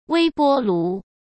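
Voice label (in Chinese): "微波炉", Mandarin Chinese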